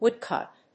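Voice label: "woodcut", English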